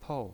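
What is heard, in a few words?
"pou" fourth tone, pinyin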